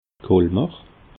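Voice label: "colmer", Alsatian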